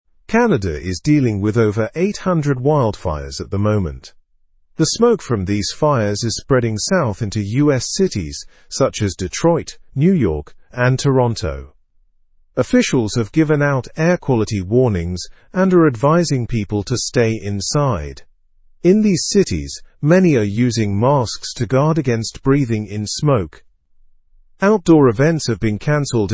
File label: english news lower intermediate; canada s wildfires create smoke alert in us